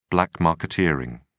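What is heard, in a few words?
"black marketeering", British English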